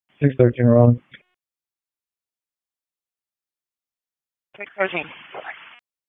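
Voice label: Police Southwest District Dispatch; Raleigh; wake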